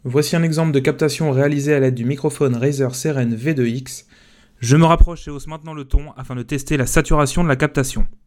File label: soundtest razer seiren v2 x limiteur on